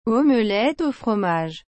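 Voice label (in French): "omelette au fromage", French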